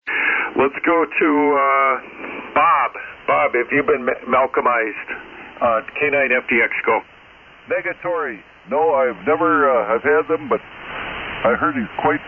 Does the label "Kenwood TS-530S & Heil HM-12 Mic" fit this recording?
Yes